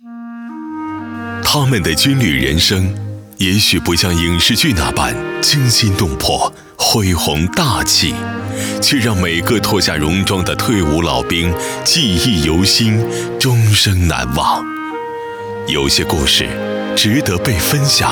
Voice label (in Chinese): 男 电台节目包装; 乐城974